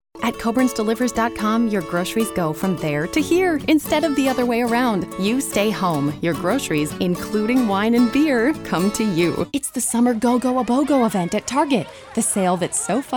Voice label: commercial